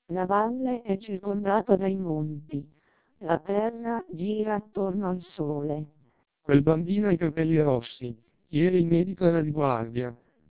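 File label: TWELP 400 bps Vocoder, Italian speech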